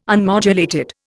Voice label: "unmodulated", Indian